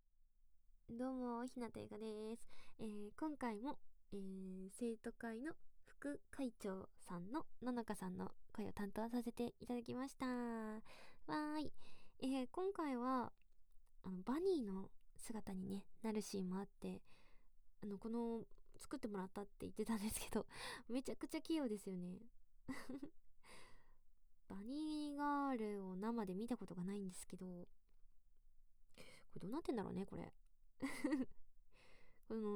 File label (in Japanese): フリートーク